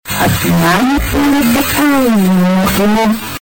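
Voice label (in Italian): "ah di mali", Italian; Ah, di mali sarebbe album Aldilà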